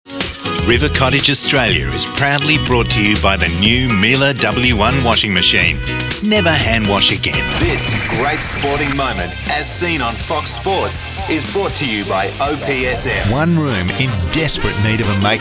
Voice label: Promos

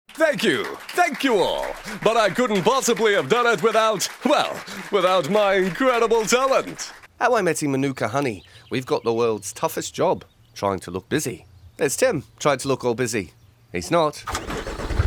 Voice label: character

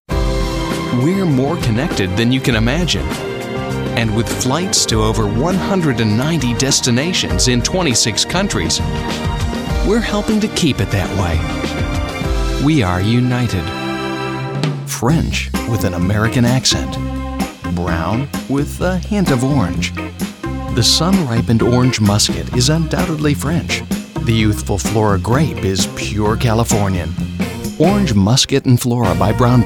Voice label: soft

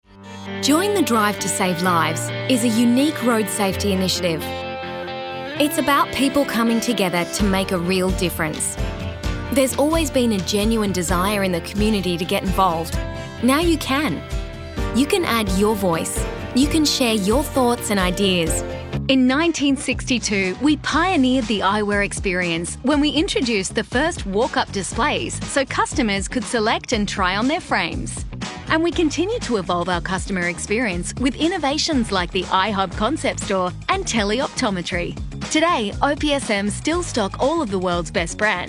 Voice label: Narration

